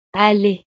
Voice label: "aller", francés

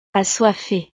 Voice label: "assoiffée", francés; estados animo

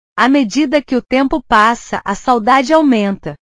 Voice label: "saudade", portugues

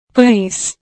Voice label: "paes", portugues

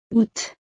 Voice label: "août", francés; los meses

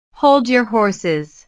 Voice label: "hold your horses", inglés; «sujeta tus caballos»

